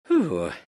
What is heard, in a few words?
happy; dota; tinker